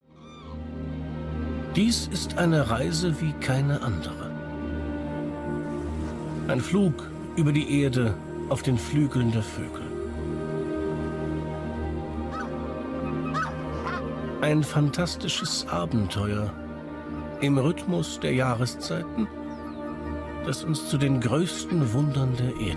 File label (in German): Doku Kommentar; Dokumentation - Die fantastische Reise der Vögel HD; arte